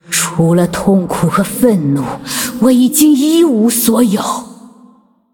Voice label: Female Ghost Threaten, zh